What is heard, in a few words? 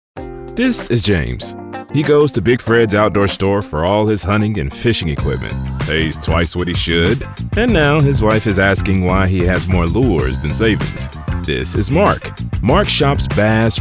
CORPORATE